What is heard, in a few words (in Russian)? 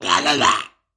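zvuki golosa peshchernogo cheloveka, caveman voice sounds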